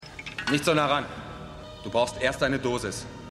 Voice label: Asiatischer Anfuehrer; The Outer Limits

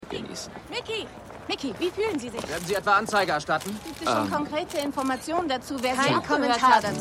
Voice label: Reporterin Reporter; Kacey Montoya; The Lincoln Lawyer; Stimmproben; USA

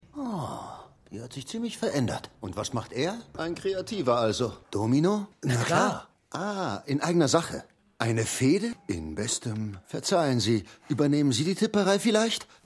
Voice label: Italienischer Polizist

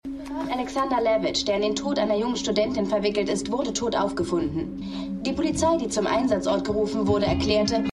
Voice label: Nachrichtensprecherin; Scandal

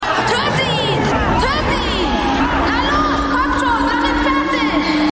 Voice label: Zuschauender Junge; Martial Law; Serienfuehrer Weihnachtsfolgen Weltweit; Stimmproben

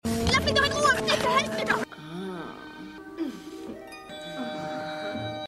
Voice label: Junge Frau; Hercules; Cleitus

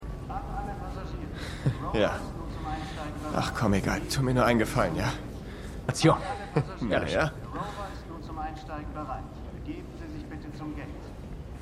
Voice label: Maennl Durchsage, Stimmproben; For All Mankind

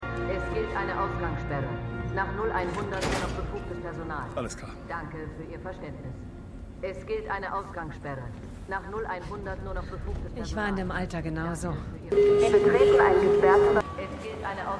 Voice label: Durchsagen; For All Mankind

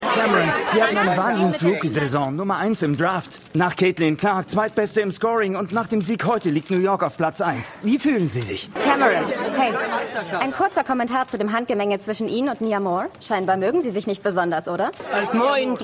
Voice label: Reporter Reporterin Reporter, Stimmproben; Law & Order

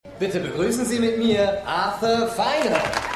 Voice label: Ansager; God Friended Me

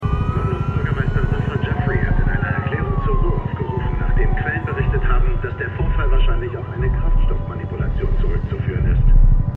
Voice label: Nachrichtensprecher; Slow Horses Ein Fall fuer Jackson Lamb

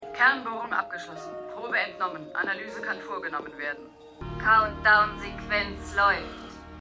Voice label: Computerstimme; The Outer Limits

